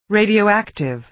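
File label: "radioactive", American English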